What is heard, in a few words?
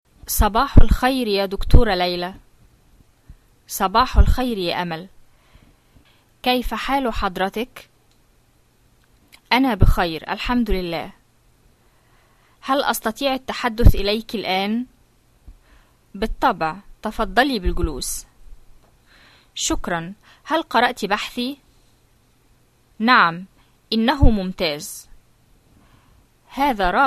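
Modern Standard Arabic greetings, dialogues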